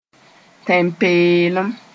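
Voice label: "tẽn-peelem", Mooré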